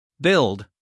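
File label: "build", American English, male; 1000 hours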